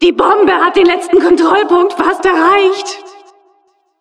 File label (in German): Announcer cart attacker final warning, de; Die Bombe hat den letzten Kontrollpunkt fast erreicht!; Reaktionen der Administratorin